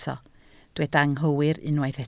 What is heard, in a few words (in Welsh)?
"anghywir", Welsh